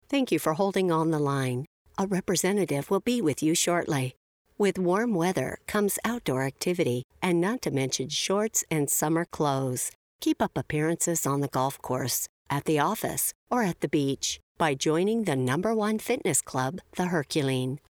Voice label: On-Hold Recording; Voicerealm